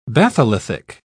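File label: "batholithic", British English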